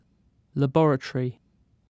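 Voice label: "laboratory", British English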